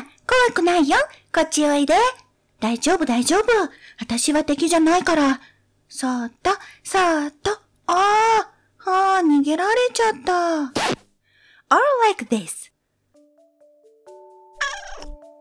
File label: Studio Voice sample